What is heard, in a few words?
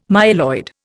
"myeloid", Indian